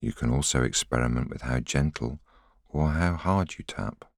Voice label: voiceover